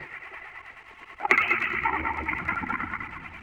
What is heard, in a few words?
TECHNO VOCALS 140; Techno2